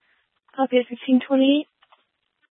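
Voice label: Tempe police department, scanner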